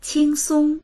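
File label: "qing1song1", Mandarin Chinese; 轻松; qīngsōng; 軽快な、容易な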